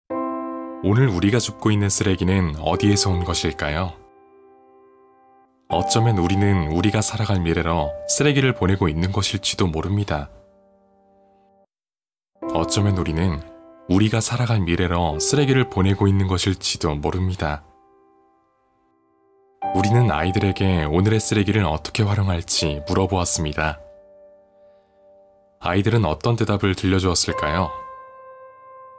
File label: Narration